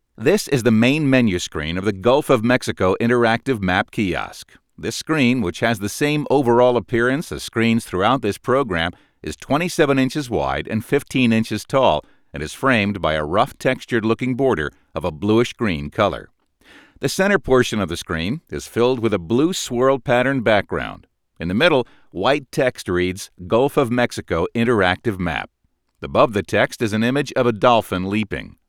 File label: male